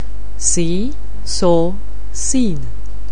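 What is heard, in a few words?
"see", English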